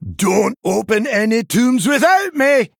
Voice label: Male Dwarf Bark